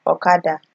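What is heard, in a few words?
"okada", Nigerian Pidgin, female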